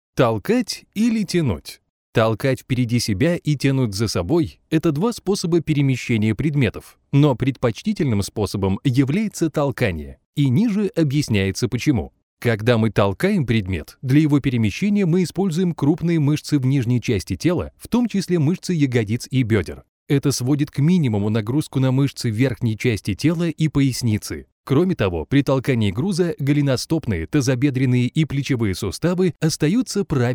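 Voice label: Russian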